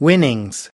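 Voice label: "winnings", US English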